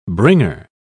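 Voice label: "bringer", British English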